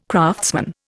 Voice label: "craftsman", Indian

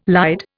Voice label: "lied", Indian

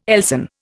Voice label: "Elsan", Indian

